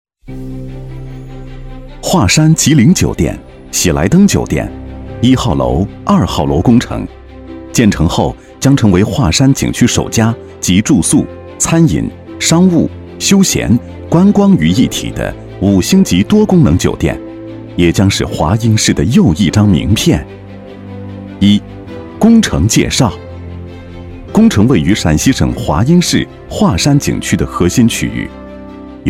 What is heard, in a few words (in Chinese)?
男 酒店项目介绍 大气明亮; 高端质感; 大气浑厚; 华山集灵酒店